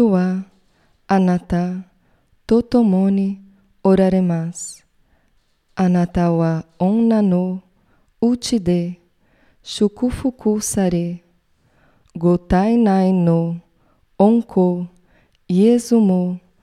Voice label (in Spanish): ave maria japones lento; ave maria idiomas